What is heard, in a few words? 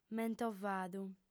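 "mentovadu" Sardinian, female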